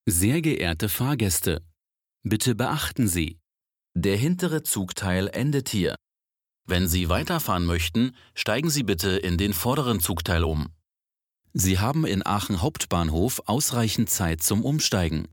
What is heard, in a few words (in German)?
hvv ansage; Schwaechung